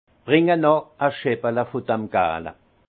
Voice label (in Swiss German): "scheppele", alsace; vin